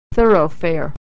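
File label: thorough, American English; Consonant Sound; thoroughfare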